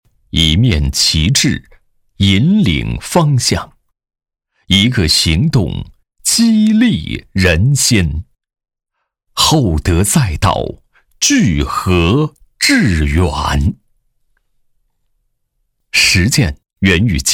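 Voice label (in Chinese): 男国大音-温暖-样音; 温暖党建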